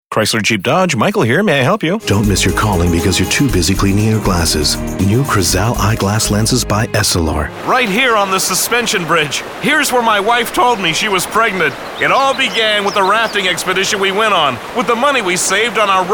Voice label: animation male